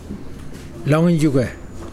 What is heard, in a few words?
"bee", Mokilese